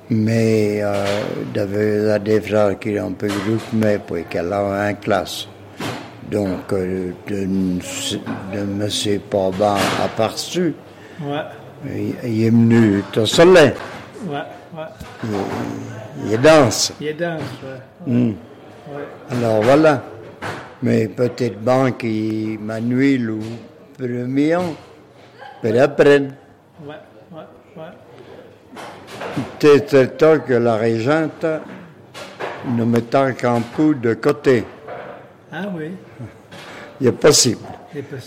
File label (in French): patois de bellevaux